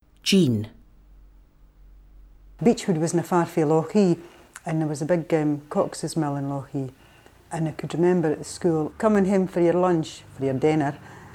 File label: Dundee, scotspeak